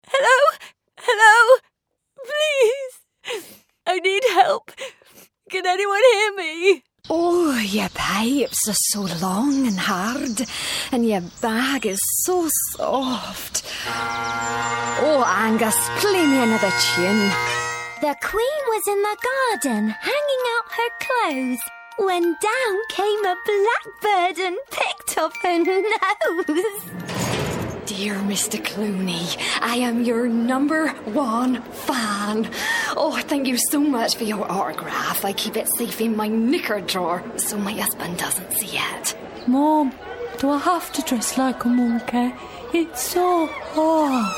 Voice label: Character